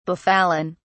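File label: "befallen", en, uk British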